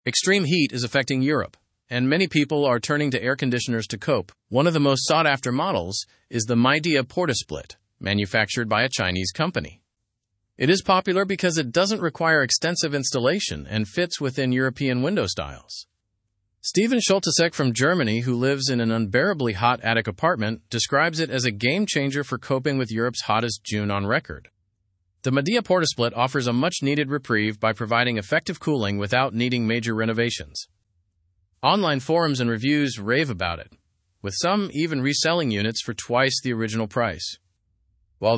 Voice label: learn english through news upper intermediate; heatwaves lead europeans to chinese ac units